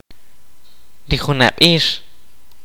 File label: "last year", kaqchikel